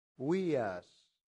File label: "vias", latin; santillana